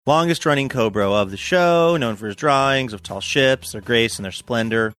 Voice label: saying longest running cob roof show sing songy